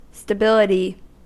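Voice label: "stability", US English; pronunciations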